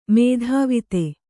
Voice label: "ಮೇಧಾವಿತೆ", kannada